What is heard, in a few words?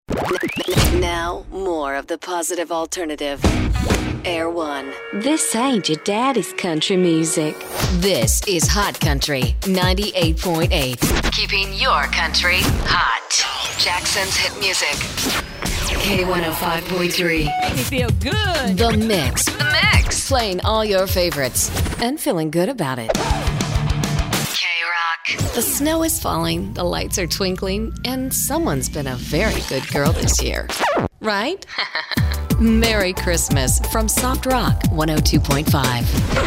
Radio Imaging